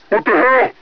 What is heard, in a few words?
scared; hunter